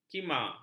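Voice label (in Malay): "kima", Malay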